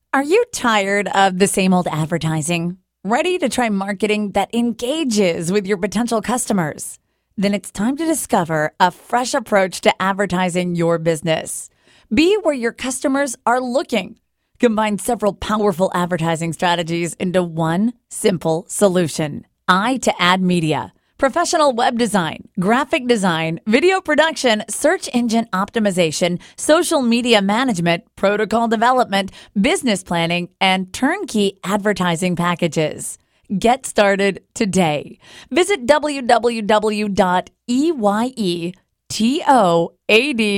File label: Radio Ad; Eyetoad